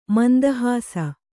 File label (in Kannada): "ಮಂದಹಾಸ", kannada